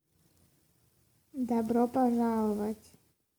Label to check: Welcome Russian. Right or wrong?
Right